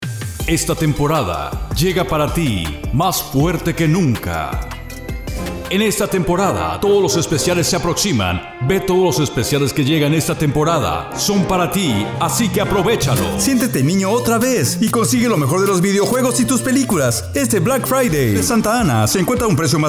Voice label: Male